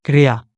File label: "Crear", occitan